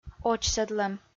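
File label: "очсӧдлӧм", Komi, female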